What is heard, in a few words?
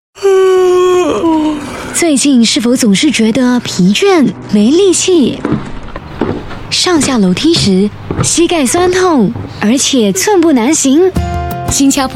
Mandarin, Mandarin China Female; Fook San Tong